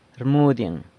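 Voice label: "rmudyeng", San Lucas Quiaviní Zapotec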